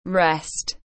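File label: "rest", English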